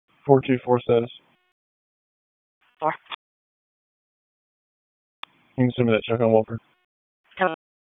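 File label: Raleigh Police Southeast District Dispatch, p25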